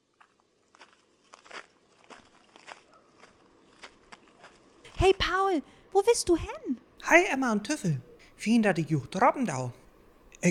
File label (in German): Dialog, niederdeutsch